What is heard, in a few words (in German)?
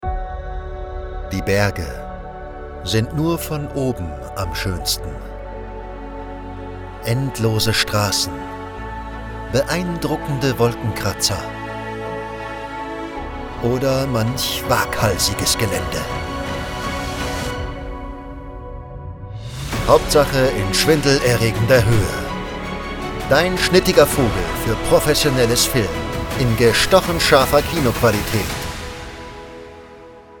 Werbung Drohne; LOFT VOICES